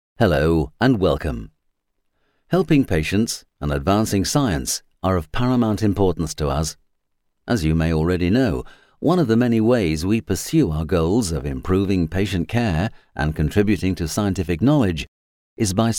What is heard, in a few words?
Chatty